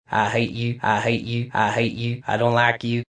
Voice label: I Hate You List, Attitude, Male; VoiceBox

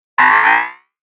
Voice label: Robot Mumbo Jumbo, sci-fi sound effect; Robot Sound Effects

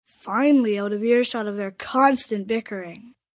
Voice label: Finally Bickering, 1 boy, 11 yrs

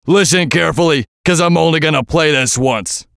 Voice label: VOICE COMICAL; Radio Voice -Listen Carefully

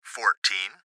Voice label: Gps Voice, Male; Recorded Voice; Blastwave; Technology

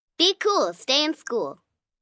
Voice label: Cool School, Good Advice, 1 girl, 9 yrs; Talking Sound Effects; VoiceBox; Twistedtracks

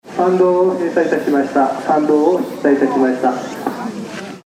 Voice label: Loudspeaker Announcement Male Japan, Japanese; Japanese loudspeaker announcement, Tokyo Japan. Male Japanese message; Pa Announcement Sound Effects

